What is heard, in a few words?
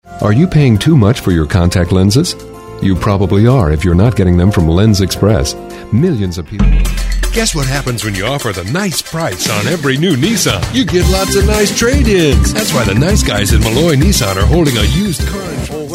English, english males